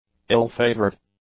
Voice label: "ill-favoured", English; adjectives

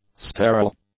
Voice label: "sterile", English; adjectives

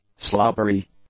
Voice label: "slobbery", English; adjectives

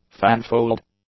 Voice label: "fanfold", english; adjectives

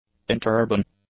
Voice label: "interurban", english; adjectives

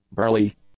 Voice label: "burly", English; adjectives

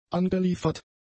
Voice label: "angeliefert", German; adjectives

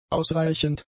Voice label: "ausreichend", German; adjectives

